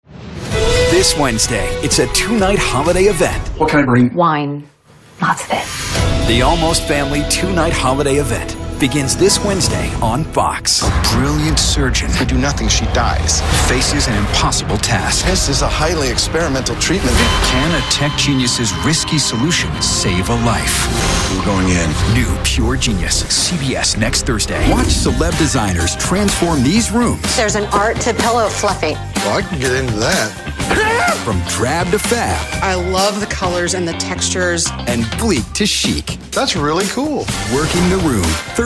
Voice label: promo men

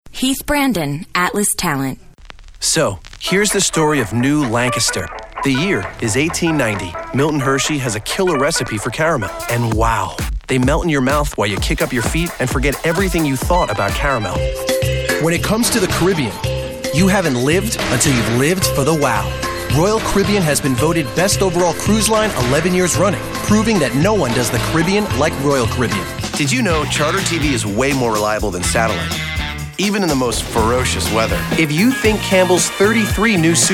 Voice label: commercial

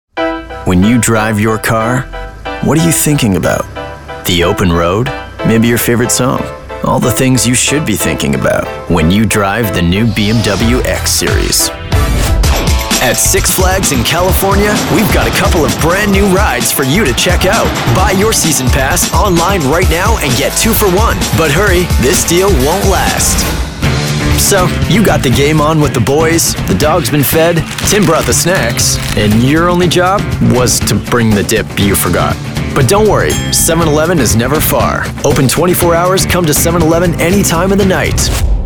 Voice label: commercial male

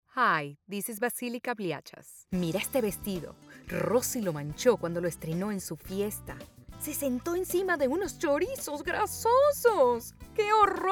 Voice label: spanish commercial

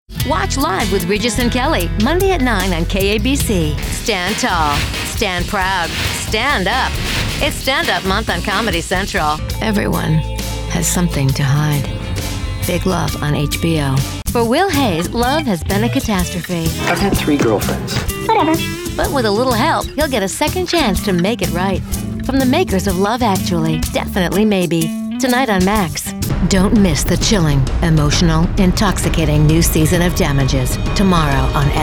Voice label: promo, women

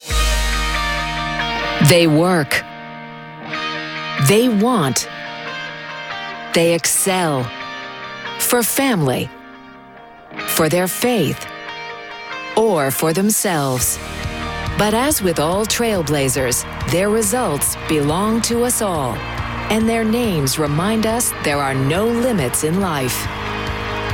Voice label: live announcing female; show and stadium announcers

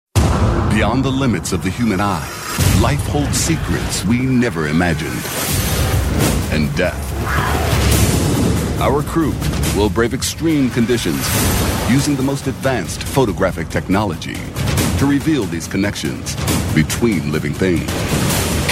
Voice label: promo and narration, men

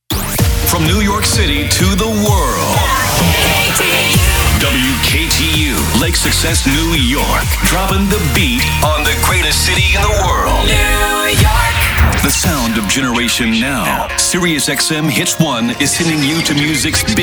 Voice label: radio top 40; men; radio imaging

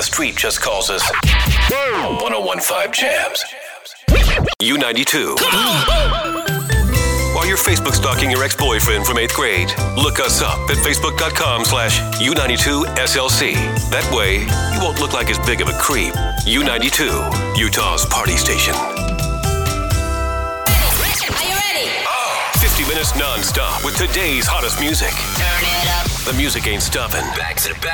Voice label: radio imaging male rhythmic

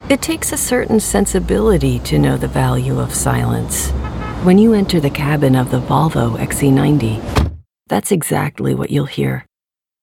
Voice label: commercial women

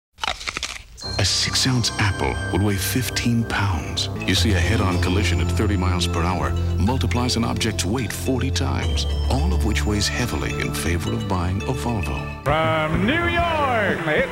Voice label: celebrity commercial

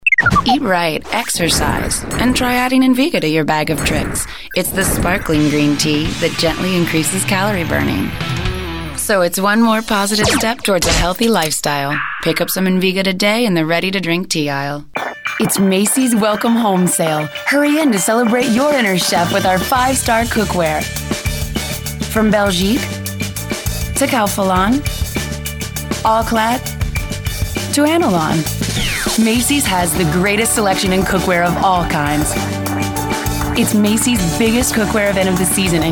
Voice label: commercial, commercial women

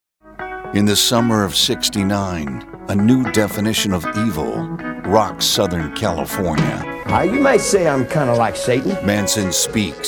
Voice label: promo men

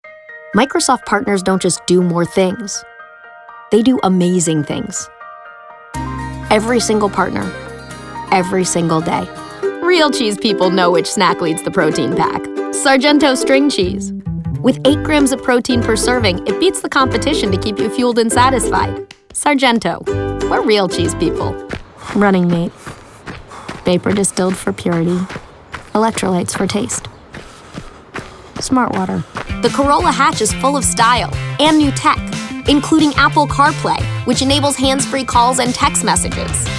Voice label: commercial women

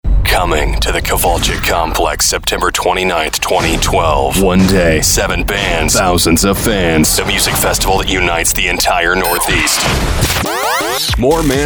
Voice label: radio imaging

